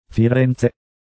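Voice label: "Firenze", Italian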